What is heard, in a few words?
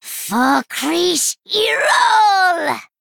Female Gnome; Rin